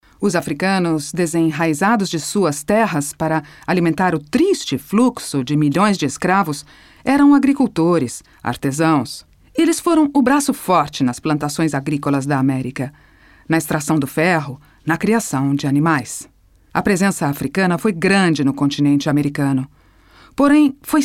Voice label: portoghese bra

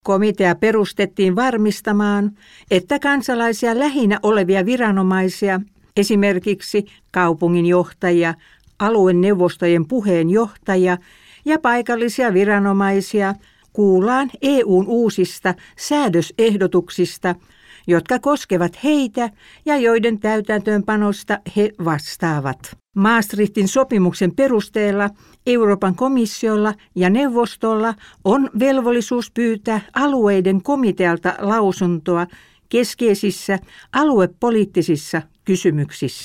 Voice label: finlandese

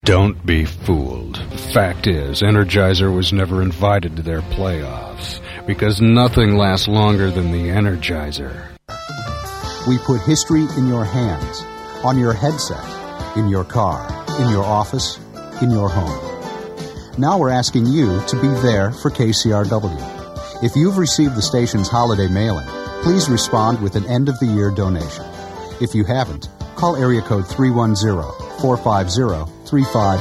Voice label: inglese usa

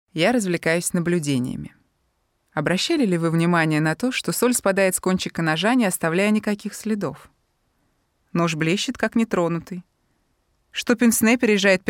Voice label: russo

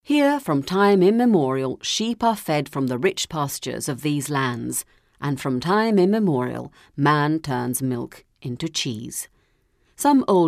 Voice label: inglese uk

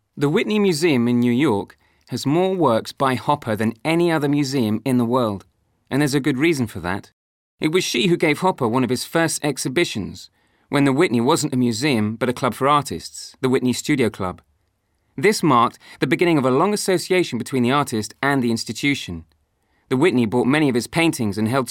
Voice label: inglese uk

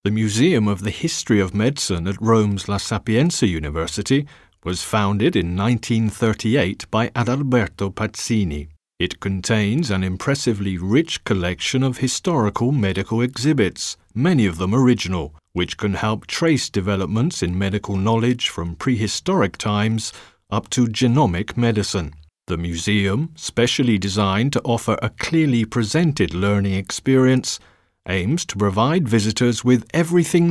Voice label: inglese uk

